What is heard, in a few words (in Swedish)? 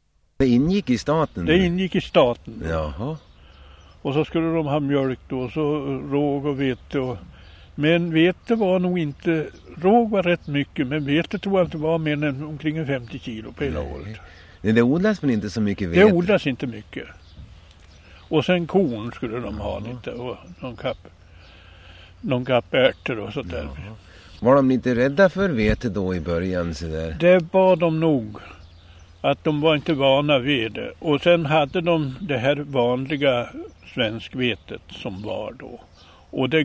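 Säby Västmanland, Svenska sagor, dialekter; Folkminnen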